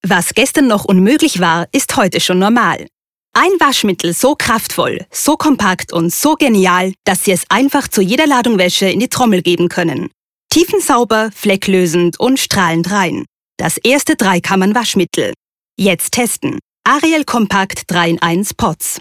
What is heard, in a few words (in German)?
Werbung; Ariel